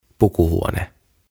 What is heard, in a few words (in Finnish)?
"Pukuhuone", "Changing Room"; OTAVA KONSERNI